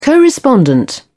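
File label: "co respondent", English, British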